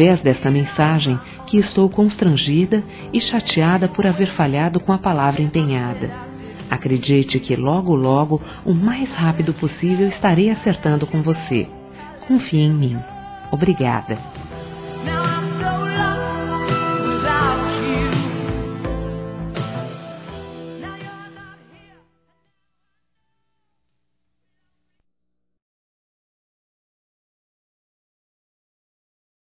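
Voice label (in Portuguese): Desculpas Pelo Atraso No Pagamento, Feminino; Telemensagens; Telemensagens 2017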